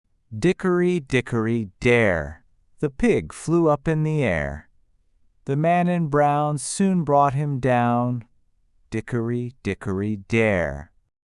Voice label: male us; Dickery Dickery Dare